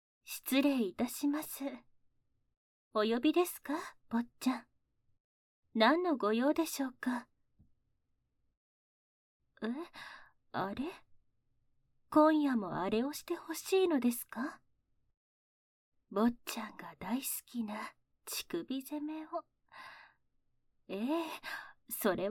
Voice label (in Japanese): 耳元で囁きながら, ASMR; 乳首責めと足コキで射精; 効果音なし